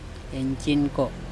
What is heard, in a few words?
"incinkO", andamanese; three